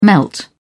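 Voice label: "melt", British English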